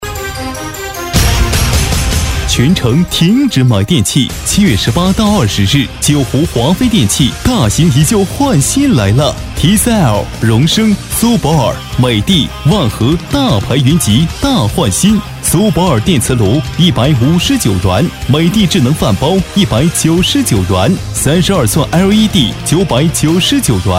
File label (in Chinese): 【促销】电器